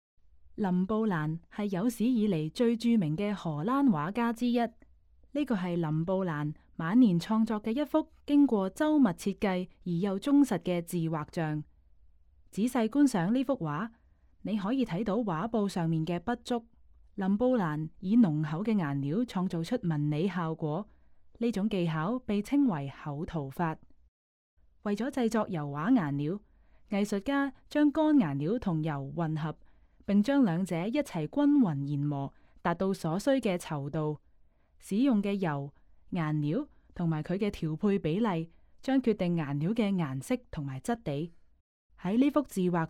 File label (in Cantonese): Canto; 六十三歲的自畫像; 林布蘭．凡．萊因（1606–1669年）; 布面油畫，86 x 70.5厘米; 英國國家美術館; 從波提切利到梵高：英國國家美術館珍藏展